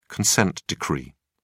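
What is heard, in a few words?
"consent decree", British English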